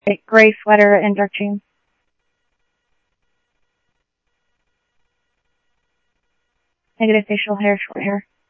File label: Tempe Police, scanner audio; TEMPE PD A02